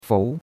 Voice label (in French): "fou2", chinois; Cours-de-Chinois